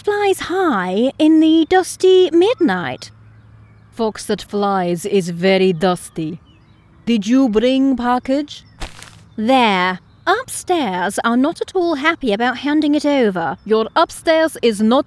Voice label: Character Spies